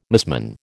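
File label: "musmon", American English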